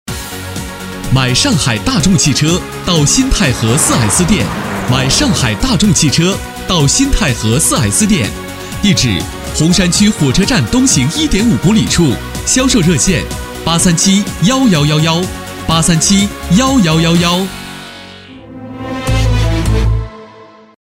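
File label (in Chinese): 广告, 男; 上海大众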